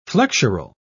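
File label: "flexural", British English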